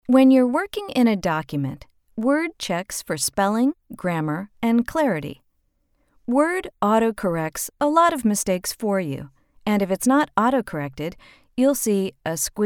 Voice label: eLearning